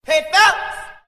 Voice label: "hey fellows", voice; ooh ahh